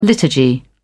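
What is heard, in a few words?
"liturgy", British English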